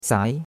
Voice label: "sai2", chinois; Cours-de-Chinois

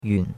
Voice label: "yun3", chinois; Cours-de-Chinois

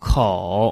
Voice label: "kou3", chinois

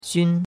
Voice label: "xun1", chinois; Cours-de-Chinois

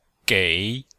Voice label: "gei3", chinois; Cours de Chinois

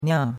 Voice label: "nia4", chinois; Cours-de-Chinois

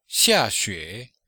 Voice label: "xia4xue3", chinois; Cours de Chinois

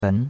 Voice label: "ben2", chinois; Cours-de-Chinois

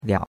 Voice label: "liao3", chinois; Cours de Chinois

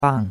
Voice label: "bang4", chinois; Cours de Chinois

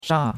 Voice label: "sha4", chinois; Cours de Chinois

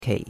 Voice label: "kei3", chinois; Cours-de-Chinois

